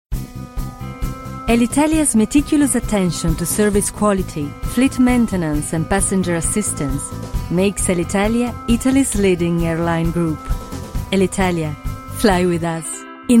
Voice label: Italian English